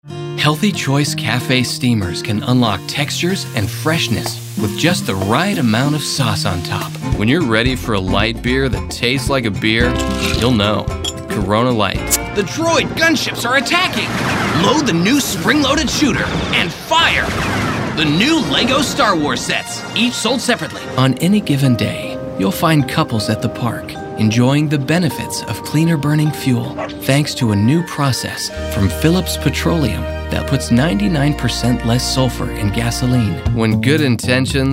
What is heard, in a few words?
Commercial